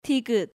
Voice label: "Digeut", Korean